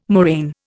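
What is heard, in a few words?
"moraine", Indian English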